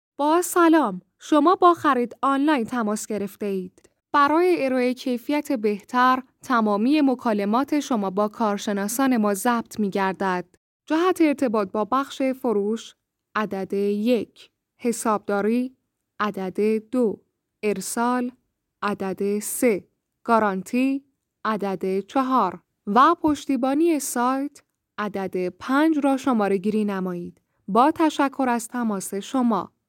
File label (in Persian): صدای خانم